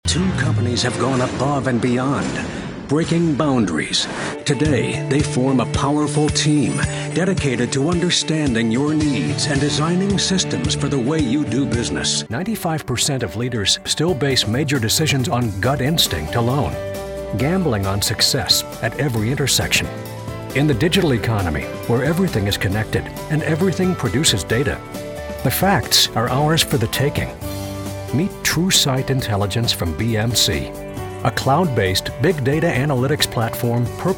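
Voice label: narration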